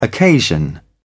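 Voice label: "occasion", British English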